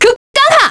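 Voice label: Vox Skill, Korean; Naila; kingsraid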